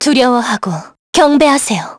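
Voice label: Vox Skill, Korean; kingsraid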